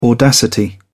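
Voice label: "audacity", British English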